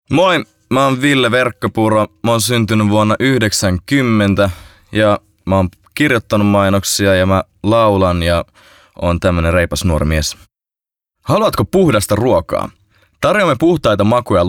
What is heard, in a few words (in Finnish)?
Äänen väri: neutraali Kielet: suomi; Spiikkerit